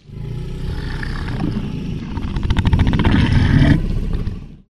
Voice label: Male Large